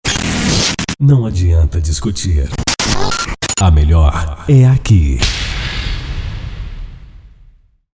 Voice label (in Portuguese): vinheta